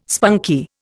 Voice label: "spunky", Indian

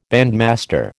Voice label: "bandmaster", American

